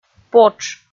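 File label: "потш", Komi, female; kpv audio dict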